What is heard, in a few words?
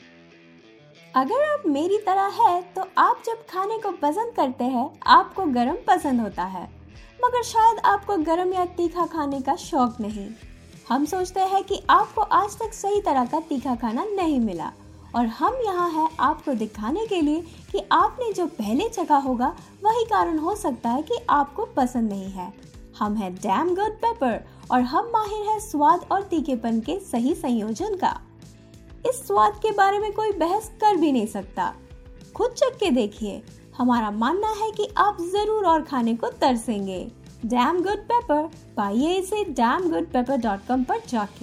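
commercial, Hindi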